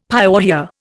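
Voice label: "pyorrhoea", Indian English; Dictionary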